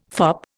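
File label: "fop", Indian English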